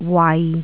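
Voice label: "wai6", Cantonese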